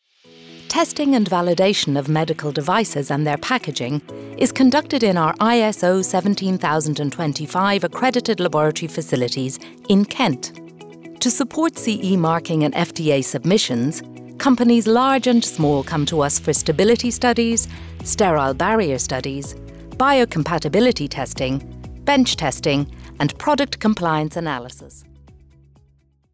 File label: Corporate